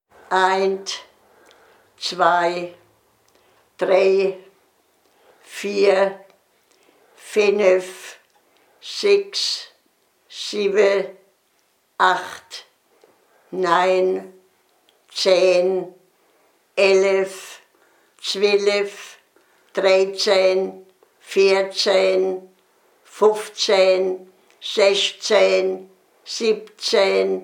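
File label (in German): zahle uff liesefeller, O-Ton Hunsrück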